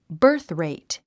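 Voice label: "birthrate", English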